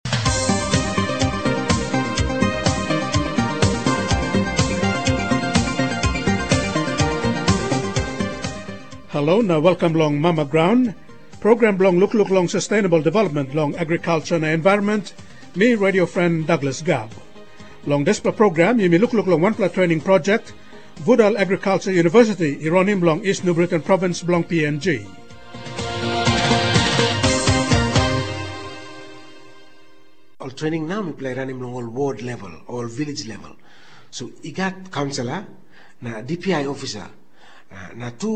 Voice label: Tok Pisin; Mama Graun